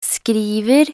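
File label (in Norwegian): "skriver", Norwegian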